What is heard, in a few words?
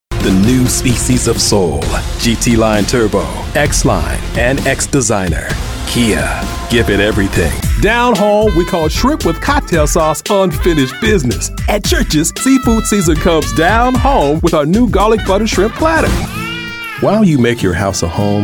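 Male Commercial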